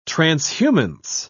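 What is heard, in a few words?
"transhumance", British English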